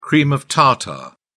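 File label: "cream of tartar", English, British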